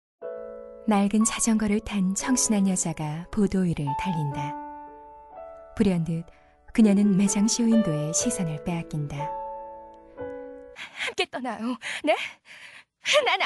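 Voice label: Korean, female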